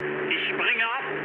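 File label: German bailout mood med, speech; War Thunder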